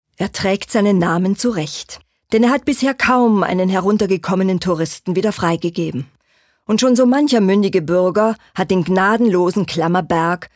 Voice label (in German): Kommentar Neutral